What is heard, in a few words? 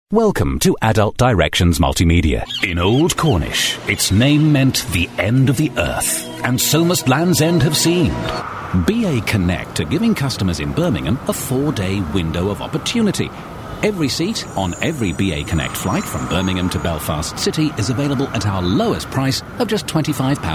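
UK male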